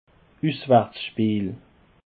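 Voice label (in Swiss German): "uswartsspeel", Alsatian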